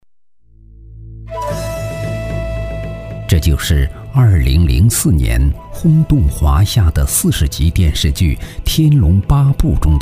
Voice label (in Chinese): 其他 栏目 磁性, 男国; 慕容家族解密